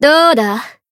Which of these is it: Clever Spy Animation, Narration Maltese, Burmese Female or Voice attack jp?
Voice attack jp